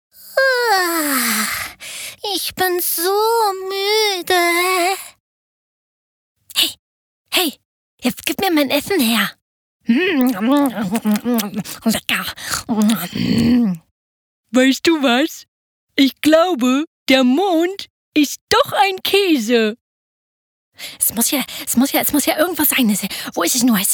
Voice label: german